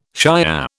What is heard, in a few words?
"Shiah", British